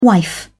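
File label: "wife", British English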